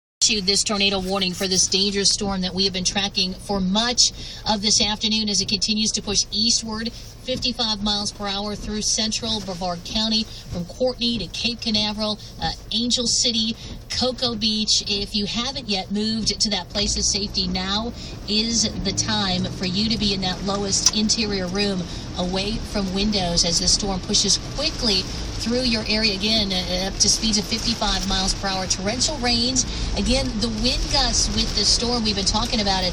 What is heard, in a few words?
Radio Stormwarning